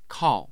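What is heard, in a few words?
"kao", Mandarin Chinese, tone 4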